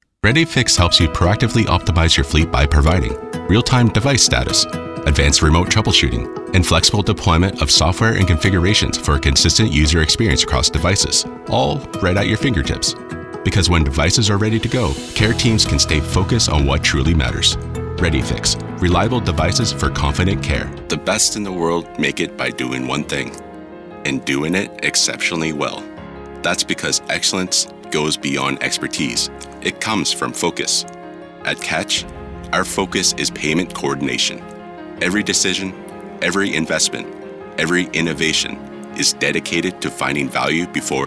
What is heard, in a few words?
explainer